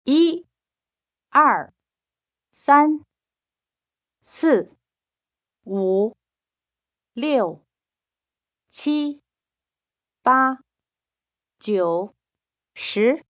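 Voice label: Numbers Mandarin, female, foreign, Mandarin, counting from 1 to 10, (human voice speaking); Talking Sound Effects; VoiceBox; Twistedtracks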